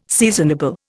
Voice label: "seasonable", Indian